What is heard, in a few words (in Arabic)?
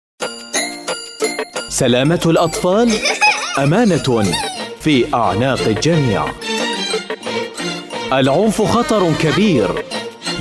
اعلان; منظمه المعهد الوطني للاطفال